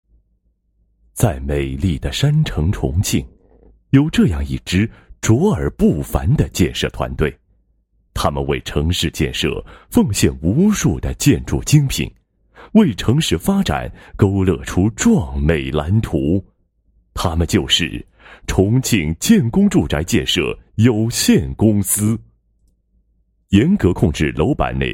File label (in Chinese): 男 工程解说; 住宅工程质量常见问题治理措施